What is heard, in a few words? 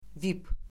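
"vip", Azerbaijani; Orfoqrafiya Lüğəti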